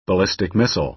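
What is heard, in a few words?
"ballistic missile", American English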